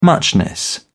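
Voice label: "muchness", British English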